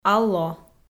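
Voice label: "алло", Komi, female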